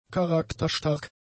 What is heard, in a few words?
"charakterstark", German; adjectives